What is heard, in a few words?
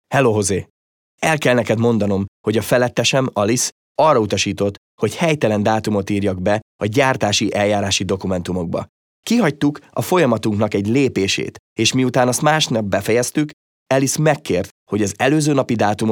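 Hungarian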